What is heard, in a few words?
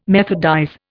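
"methodize", English, Indian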